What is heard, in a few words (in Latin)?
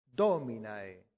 "dominae", latin; santillana